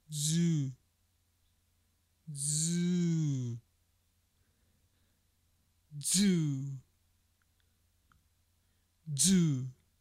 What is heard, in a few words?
"zoo" "dzoo"